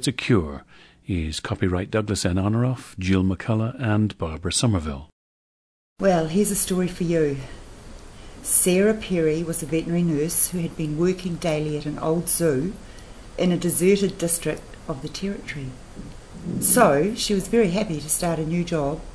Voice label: New Zealand